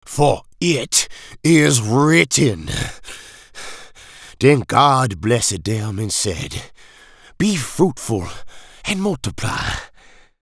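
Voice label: New Orleans Creole Accent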